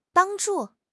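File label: "bang1 zhu4", Chinese; HSK; HSK2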